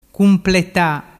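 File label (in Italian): "cumpleta", Vernazzese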